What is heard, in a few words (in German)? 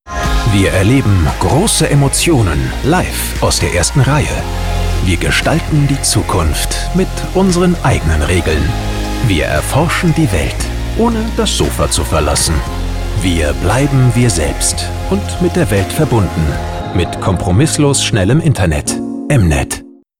Spots OFF, maenner; M-Net; Kompromiss